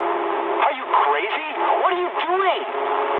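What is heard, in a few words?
English player killed ally mood med; speech; War Thunder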